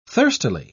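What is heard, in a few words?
"thirstily", British English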